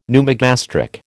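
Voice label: "pneumogastric", American English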